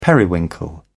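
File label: "periwinkle", British English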